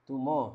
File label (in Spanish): "tumor", Zapoteco, Magdalena Ocotlan; Enfermedades; INALI; Haspelmath